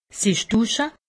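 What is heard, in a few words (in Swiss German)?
"dusche", Alsatian; medecine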